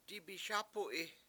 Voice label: "dibiSAp$u7i", Washo; I'm hungry